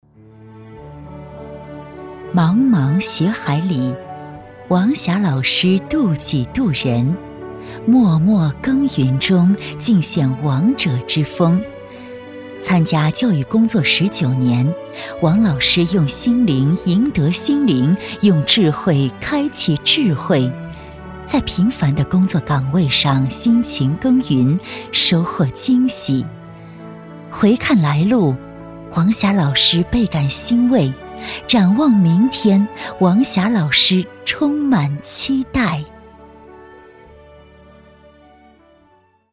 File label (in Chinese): 女 专题 稳重大气; 人物学海茫茫